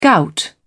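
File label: "gout", English, British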